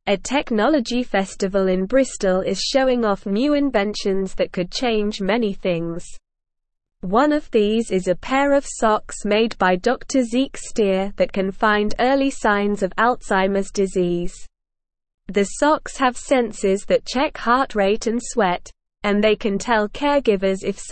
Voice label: English Lower Intermediate SLOW Reading; Exciting Tech Party in Bristol Cool Ideas Revealed; English Newsroom